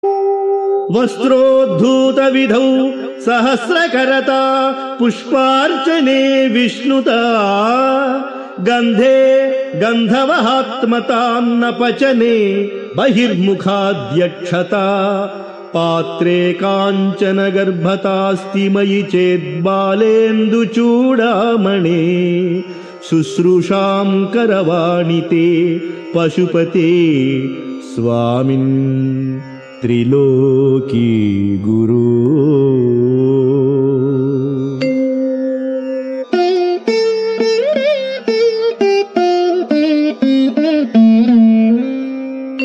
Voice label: Sanskrit; Sri Adi Sankaracharyas Sivananda Lahari; Adi Shankaracharya; श्रीशिवानन्दलहरी ३०